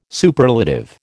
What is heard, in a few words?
"Superlative", American